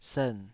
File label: "sern3", taiwanese